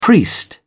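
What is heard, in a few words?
"priest", British English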